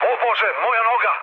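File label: Serbian damaged pilot mood low, speech; War Thunder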